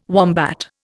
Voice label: "wombat", Indian

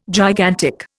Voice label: "gigantic", Indian

